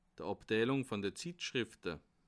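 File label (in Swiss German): "zeitschrifte", Bas Rhin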